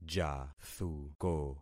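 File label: "jathuko", concatenated syllables; Affixing experiment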